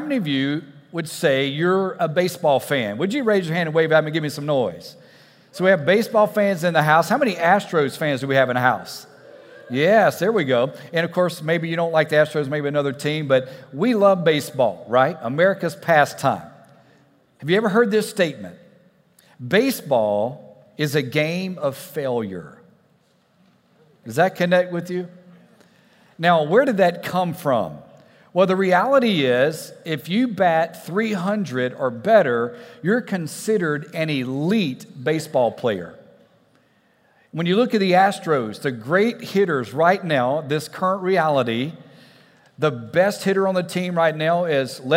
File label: Sermon English